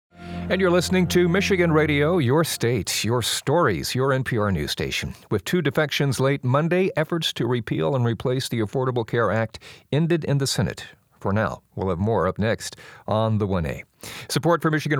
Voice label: Aircheck

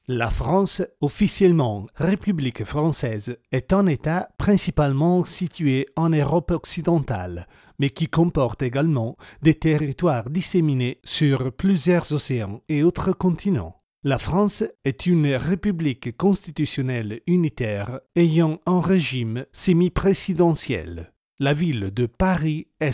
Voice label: French With Italian Accent

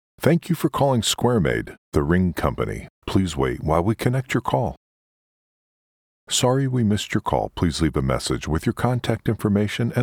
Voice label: Phone Greeting

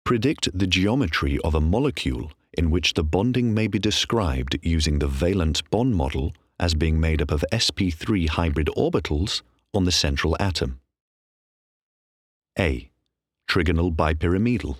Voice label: science e-learning

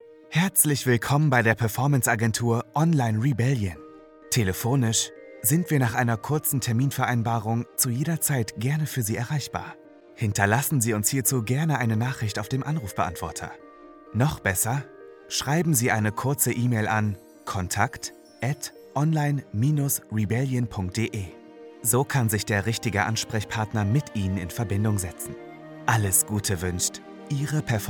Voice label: caring

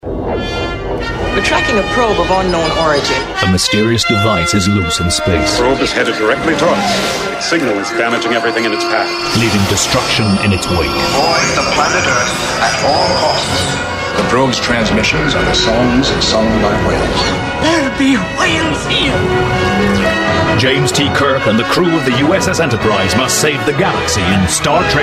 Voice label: Movie Promos US

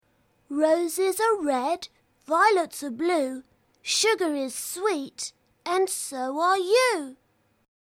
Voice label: little boy

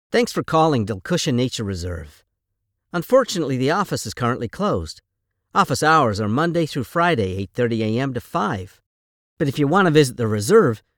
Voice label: phone recording

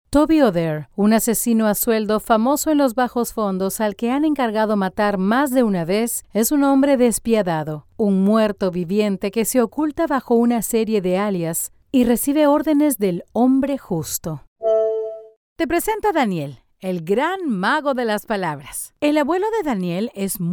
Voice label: Audiobooks

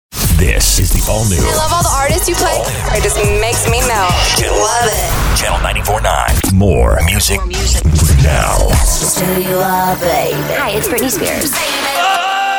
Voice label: Radio Imaging

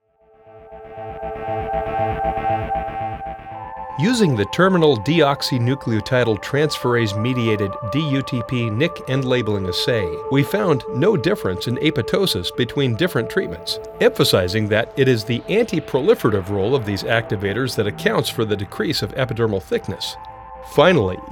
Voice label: medical narration

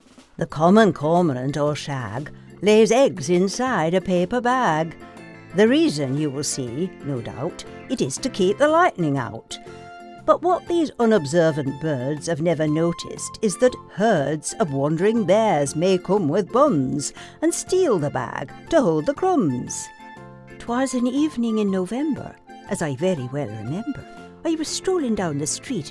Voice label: accents

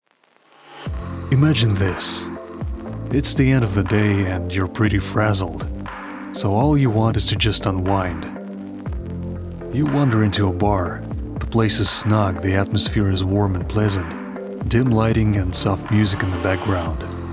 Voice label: Bar Conversations

